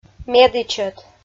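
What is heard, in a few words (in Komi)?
"медічӧт", Komi, female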